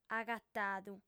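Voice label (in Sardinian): "agatadu", lingua sarda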